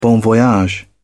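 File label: "bon voyage", British English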